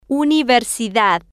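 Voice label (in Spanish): "universidad", Spanish, female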